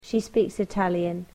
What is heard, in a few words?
"she speaks Italian", English